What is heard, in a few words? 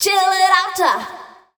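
vocal female, Dance Vocals, spoken words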